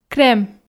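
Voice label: "крем", Komi, female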